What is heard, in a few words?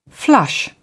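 "flush", British English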